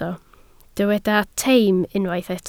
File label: "teim", Welsh